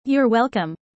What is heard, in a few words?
user fast; how tall